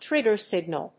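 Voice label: "trigger signal", English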